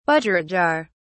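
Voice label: "budgerigar(s)", English, British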